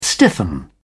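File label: "stiffen", British English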